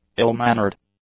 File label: "ill-mannered", English; adjectives